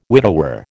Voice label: "widower", American